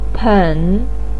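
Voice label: "pen", Mandarin Chinese third tone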